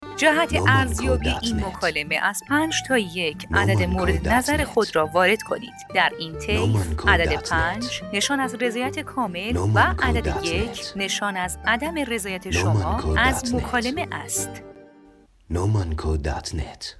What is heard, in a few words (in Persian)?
فایل صوتی سیستم نظر سنجی تلفنی با گوینده خانم